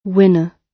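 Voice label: "winner", British English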